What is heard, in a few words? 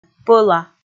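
"пӧла", Komi, female; kpv audio dict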